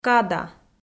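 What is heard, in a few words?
"када", Komi, female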